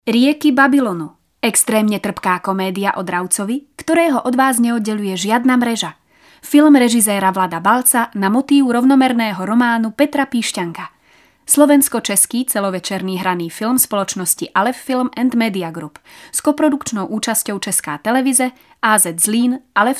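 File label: Female Slovak commercial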